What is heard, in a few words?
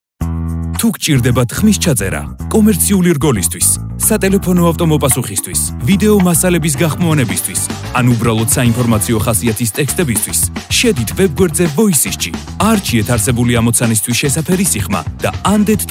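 German, male, commercial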